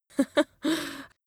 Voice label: female